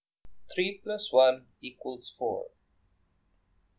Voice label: calm eng; GAN for speech spectrogram

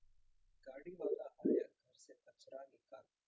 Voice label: calm hin Anger generated; GAN for speech spectrogram

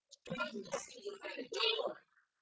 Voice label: neutral anger generated; GAN for speech spectrogram

